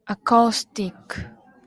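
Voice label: "acoustic", JPN, female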